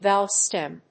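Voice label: "Valve stem", English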